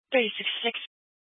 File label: Police Dispatch; Police 1 Dispatch